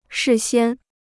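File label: "事先", Chinese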